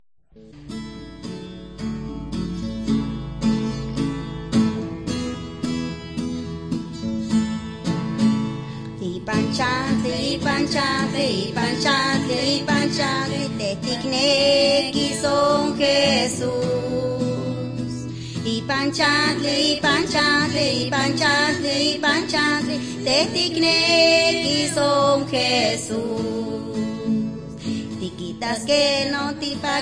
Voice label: words of life nahuatl guerrero tlapa, drama; the bible league nt